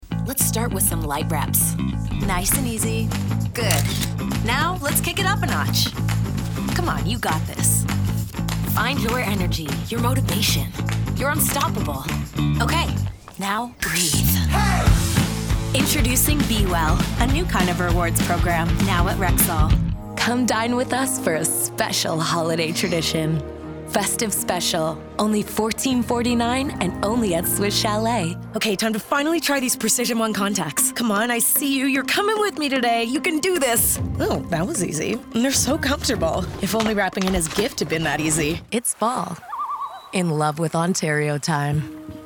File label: Commercial; TaDa